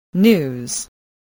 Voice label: "news", voice

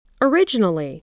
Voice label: "originally", English voice

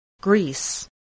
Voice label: "grease", spelling

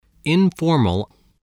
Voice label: "informal", spelling voice

